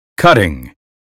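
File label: "cutting", American English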